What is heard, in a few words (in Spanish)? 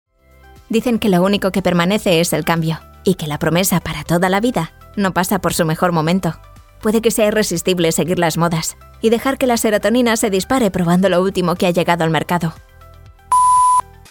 Espanol Espana Femenina Joven